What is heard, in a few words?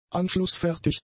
"anschlussfertig", German; adjectives